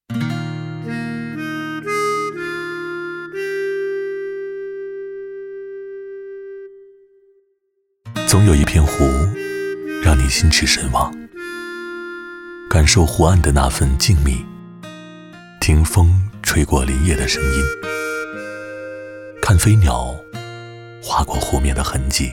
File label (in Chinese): 优美感人旁白; 滇池湖岸文案